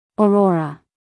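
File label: "aurora", American English, female; everyone-can-use-english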